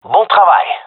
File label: compliment, gendermerie male; vo